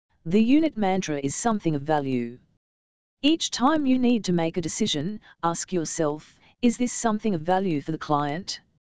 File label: dialog